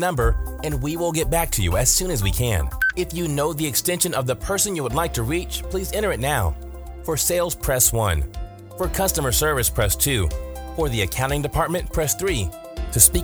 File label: Phone IVR